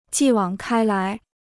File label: "继往开来", Chinese